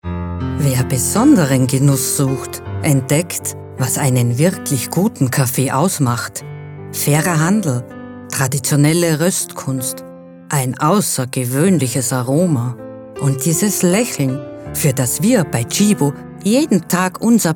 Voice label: Werbung mit Musik; Tchibo; Sprecherdatenbank

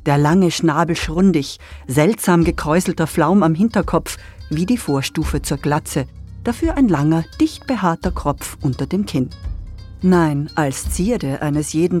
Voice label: Doku Marabu

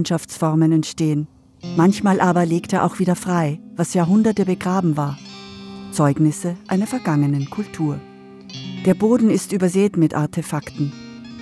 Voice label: DOKU Sudan; Universum

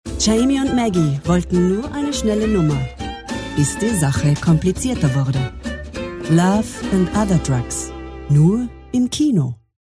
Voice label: KINOTRAILER; Sprecherdatenbank

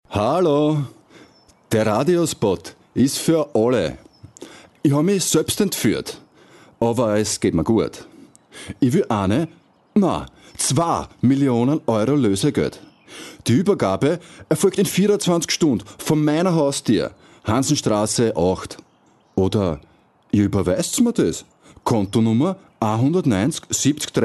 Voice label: Werbung; Entfuehrt; Sprecherdatenbank

